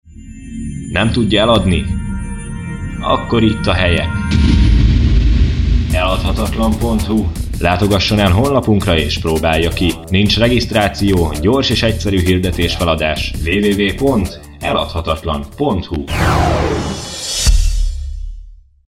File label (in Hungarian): reklam; eladhatatlan